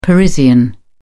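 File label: "parisian", British English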